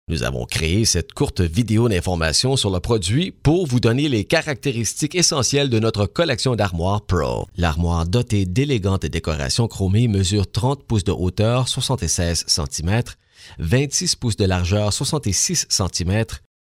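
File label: French Canada, male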